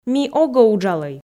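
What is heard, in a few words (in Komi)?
ми огӧ уджалӧй, Komi, female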